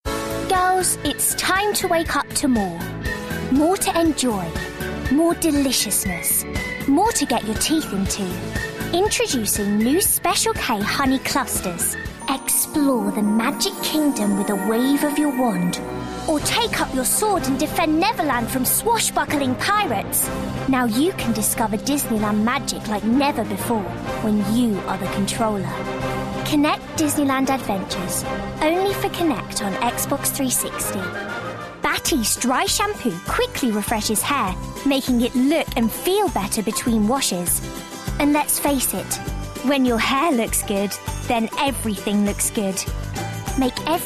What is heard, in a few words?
commercial